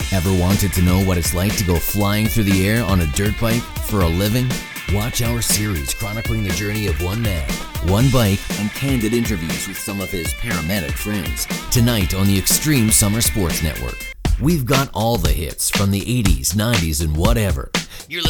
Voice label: TV Radio Imaging